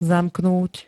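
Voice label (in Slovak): "zamknúť", Slovak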